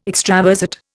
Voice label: "extravasate", Indian

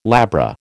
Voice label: "labra", American

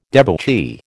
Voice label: "debauchee", American

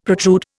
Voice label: "protrude", Indian; Dictionary

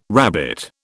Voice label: "rabbit", British

